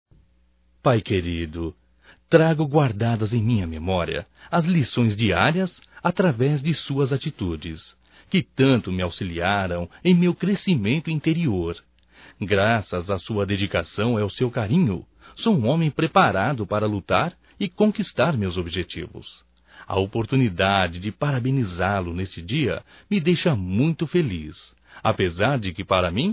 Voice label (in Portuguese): Aniversário Pai Masculino; Aniversarios; Telemensagens 2017